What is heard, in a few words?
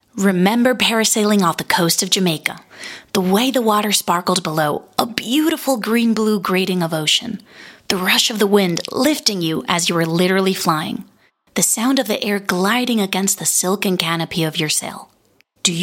english us and canada female commercial